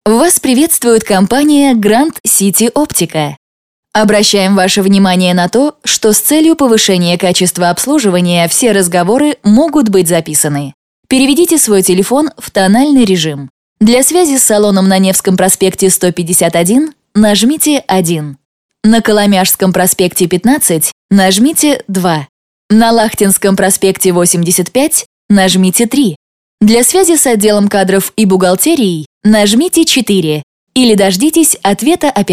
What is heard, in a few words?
IVR